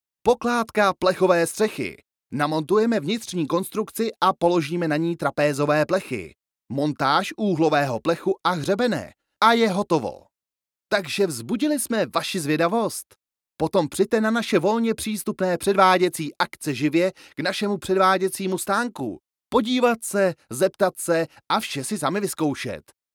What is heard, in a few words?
czech commercial